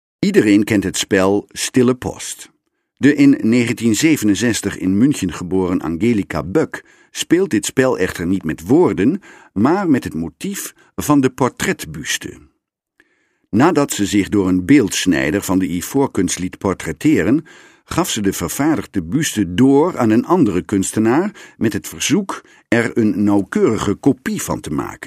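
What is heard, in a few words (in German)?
Dutch, Kommentar Variabel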